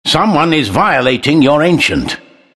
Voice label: announcer attack; Trine